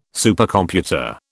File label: "Supercomputer", British